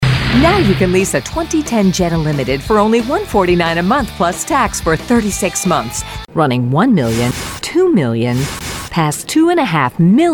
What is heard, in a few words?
Commercial Hard Sell